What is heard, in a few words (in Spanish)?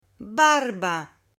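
"barba" Spanish, male; CUERPO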